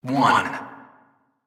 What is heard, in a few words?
Announcer; Pitch Black Audio Pong